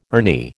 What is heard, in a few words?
"Ernie", American